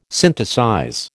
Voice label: "synthesize", American